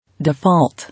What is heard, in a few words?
"Default", English